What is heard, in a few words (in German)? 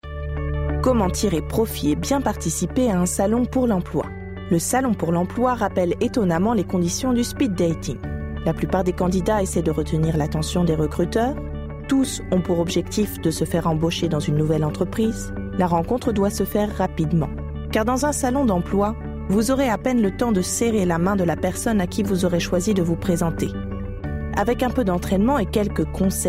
imagefilm franzoesisch